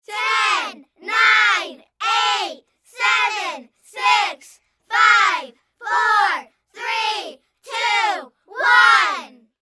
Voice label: Kids Countdown, Human, Kids, Countdown; Talking; Voice; BlastWave